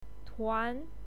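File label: "tuan2", Mandarin Chinese pinyin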